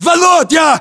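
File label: "volodya", battlechatter, Russian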